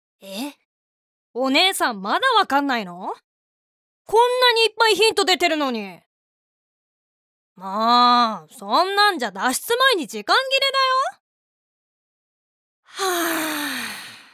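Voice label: voice sample, boy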